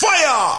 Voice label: "fire", Rastafarian